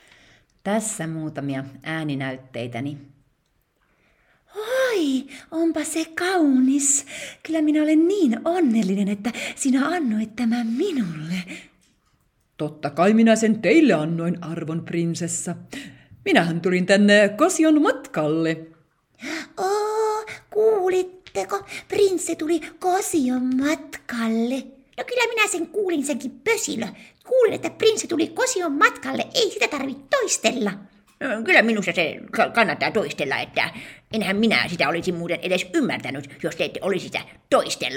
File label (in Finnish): aaninayte hahmot, voiceover; kosionmatka